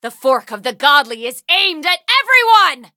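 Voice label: levelup, English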